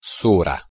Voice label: "sura", Proto Germanic